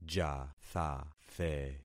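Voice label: "jathathe", concatenated syllables; Affixing experiment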